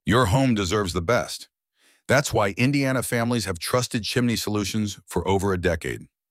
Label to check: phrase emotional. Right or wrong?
Right